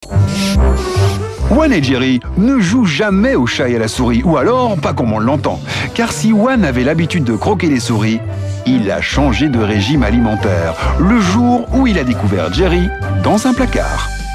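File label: FR M character, French, male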